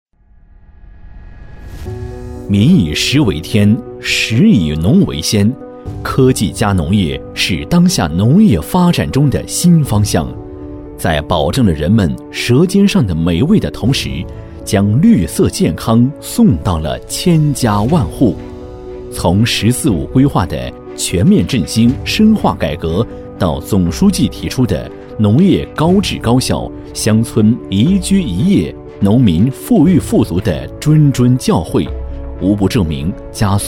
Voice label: 男国 宣传片 企业; 盒米科技

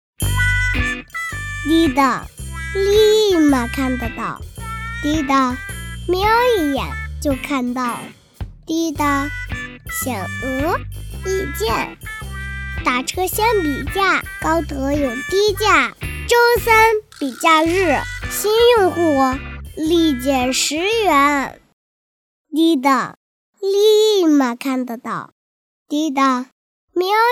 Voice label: 动画 童声 五岁真男童; 高德打车

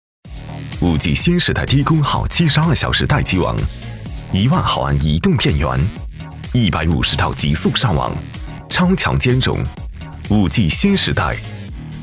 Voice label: 男国 广告 移动电源 科技; 品牌形象

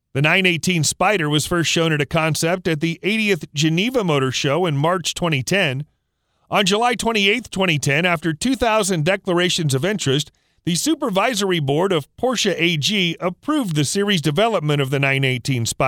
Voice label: 外籍男英 外语 美式英语 专题 自然

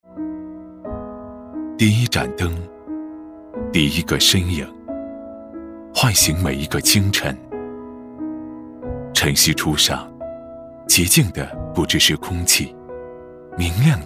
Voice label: 其他 微电影 自然内心独白, 男国

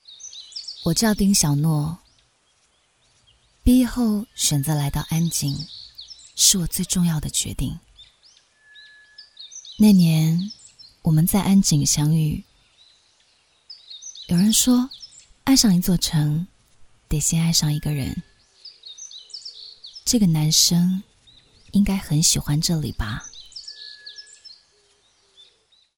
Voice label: 动画 角色 台湾腔角色讲述

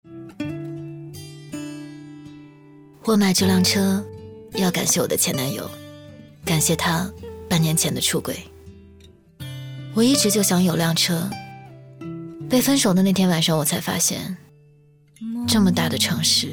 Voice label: 分手自然伤感素人; 微电影

